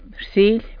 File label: "rsily", tlacolula; morning